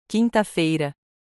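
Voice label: "Quinta-feira", Portuguese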